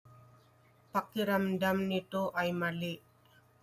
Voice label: Tagalog